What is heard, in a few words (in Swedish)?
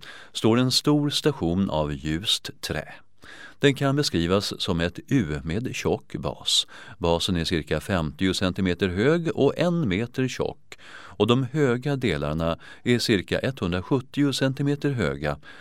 Syntolkning konstverk